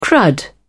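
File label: "crud", British English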